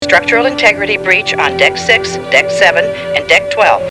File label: computer voice, structural integrity breach